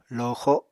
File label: "loxo", Apatani